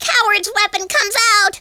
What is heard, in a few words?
"incoming", Conscience; speech